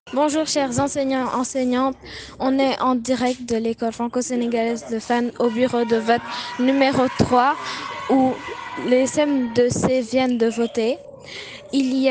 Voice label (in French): annonce resultats